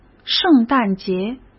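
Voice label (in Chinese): "圣诞节", Mandarin Chinese; chinese flashcards; hsk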